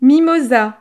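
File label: "mimosa", French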